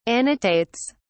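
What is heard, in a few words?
"annotates", British English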